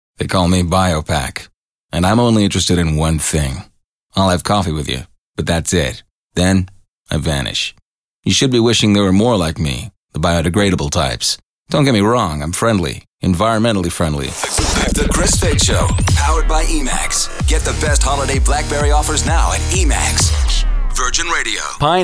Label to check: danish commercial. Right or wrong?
Wrong